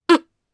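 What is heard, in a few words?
voice Sur1, voices heroes, Japanese; Naila; kingsraid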